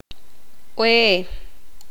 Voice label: poqomam; camino